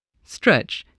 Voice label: "stretch", spelling